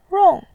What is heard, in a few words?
"rong4", voice